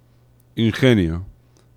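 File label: "ingenio", Spanish; laboratori fonetica; nasals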